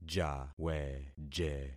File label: "jaweje", concat syllables; Affixing experiment